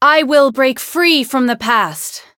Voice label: Voice variable chant

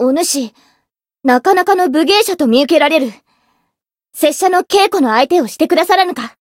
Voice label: story jp; azami

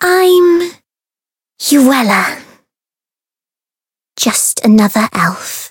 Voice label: story; ewella

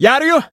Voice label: Voice heal, jp; dennie

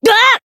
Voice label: damaged, jp

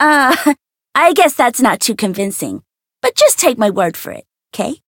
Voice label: story; bivette

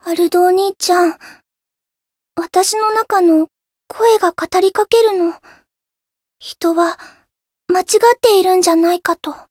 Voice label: story jp

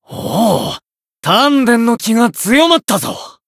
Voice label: growth, jp; shigure

